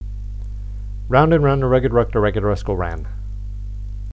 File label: fast